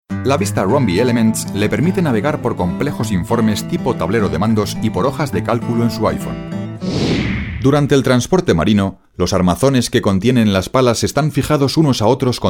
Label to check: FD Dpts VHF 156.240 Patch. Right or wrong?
Wrong